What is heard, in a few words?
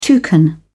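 "toucan", English, British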